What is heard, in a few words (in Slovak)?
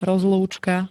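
"rozlúčka", Slovak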